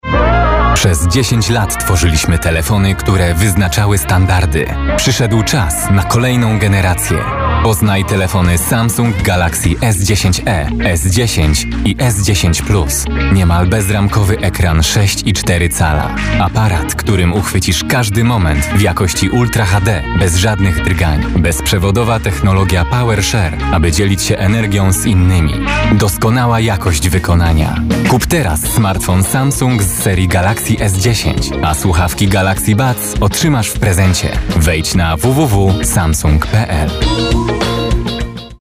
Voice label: commercial